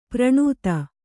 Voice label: "ಪ್ರಣುತ", Kannada

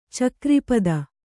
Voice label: "ಚಕ್ರಿಪದ", Kannada

